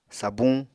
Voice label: "sabuñ", Apatani; Material Culture

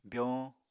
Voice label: "byoñ", Apatani

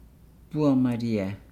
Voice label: "bua maliaa", Nukuoro

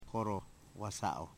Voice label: "tall", Foe

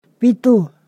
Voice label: "seven", Ponosakan

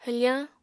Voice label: "hwfañ", Apatani

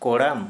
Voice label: "Chest", Santali

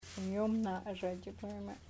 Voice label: we saw the kingfishers, chamacoco

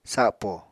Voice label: "sapo", Apatani

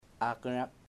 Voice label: hair, chamacoco

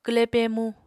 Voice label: "kwle pemu", Apatani

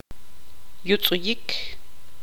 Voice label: tzutujil; agudo

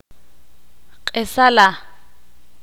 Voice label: "jefe", Ixil

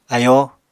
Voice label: "ayóo", Apatani

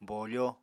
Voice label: "bofo", Apatani

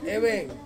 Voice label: "weng" to live, Ansus

